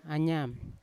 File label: "anyan" salt, Yawa